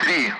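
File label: Speech Sound Russian Common Allied Flight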